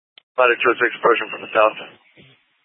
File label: Fire Dpts VHF 154.800 Patch, scanner